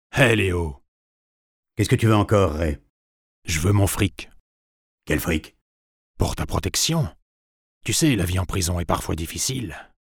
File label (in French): voix off; la traque